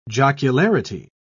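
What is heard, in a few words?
"jocularity", British English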